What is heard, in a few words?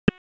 Police Northeast District Tac 1, p25; Raleigh; wake